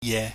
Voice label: "ye", Chinese